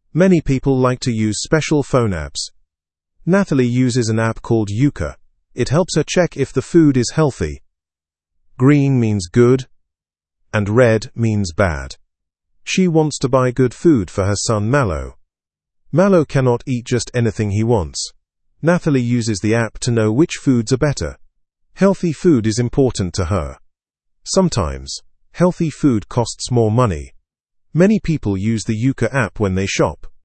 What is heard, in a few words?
english through news beginner fast; using apps to eat healthier